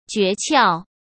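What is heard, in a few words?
"诀窍", Mandarin Chinese; chinese flashcards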